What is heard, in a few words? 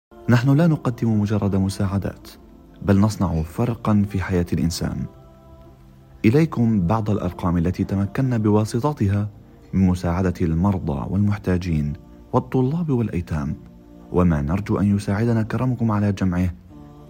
arabic fatwa long